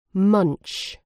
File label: "munch", uk